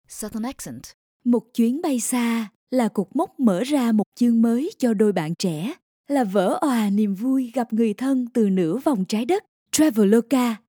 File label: vietnamese